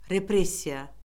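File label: "repressiya", Azerbaijani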